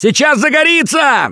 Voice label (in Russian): warn spitter incoming, left4dead2 russian, Survivor Voice; Gambler